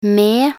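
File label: "med", Norwegian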